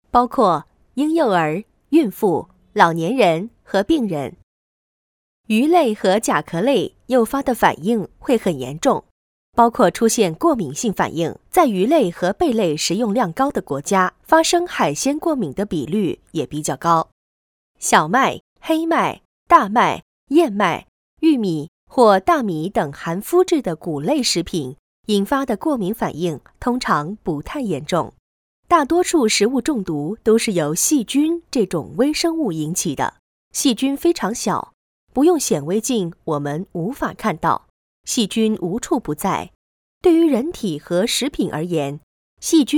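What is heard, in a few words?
chinese mandarin female ivr; voice demos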